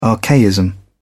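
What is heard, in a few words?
"archaism", British English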